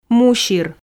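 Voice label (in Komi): "мусир", Komi, female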